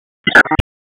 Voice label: Raleigh Police Northeast District Tac, p25; wake